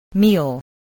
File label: "meal", spelling voice